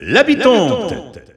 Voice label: French Announcer; Villager F; SSBU